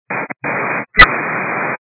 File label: 122 725MHz, scanner radio